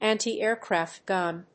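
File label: "anti-aircraft gun", English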